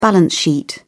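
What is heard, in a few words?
"balance sheet", British English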